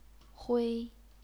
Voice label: "hui1", chinese pinyin

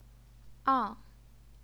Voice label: "ang4", Chinese pinyin

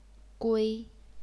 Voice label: "gui1", chinese pinyin

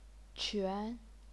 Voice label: "quan2", chinese pinyin

